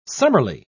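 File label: "summerly", British English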